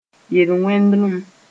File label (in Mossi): "yel-wẽndlem", moore